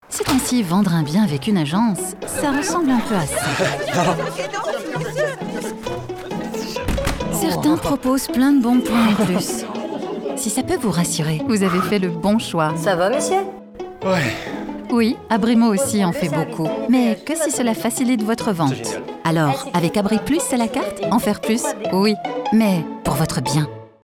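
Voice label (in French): pub immobilier voix off, voix off femme; abrimo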